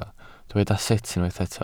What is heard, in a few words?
"sut", Welsh